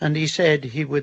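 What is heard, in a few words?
"and He said he would", Standard Southern British; ændi'sɛdhiwʊd; H-DELETION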